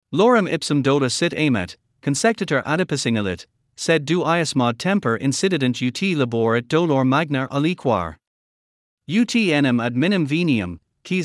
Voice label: Test audio using Irish; aggressive body language